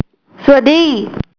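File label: "sawasdee", Thai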